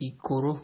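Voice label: "ikoro", Nigerian Pidgin, male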